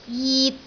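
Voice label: "jit3", Cantonese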